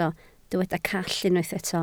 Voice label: "call", Welsh